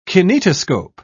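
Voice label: "kinetoscope", British English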